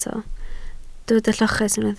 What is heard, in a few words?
"lloches", Welsh